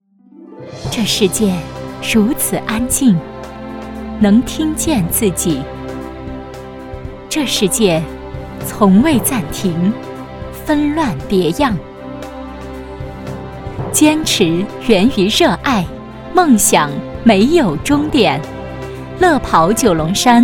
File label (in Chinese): 宣传 半程马拉松; 陕西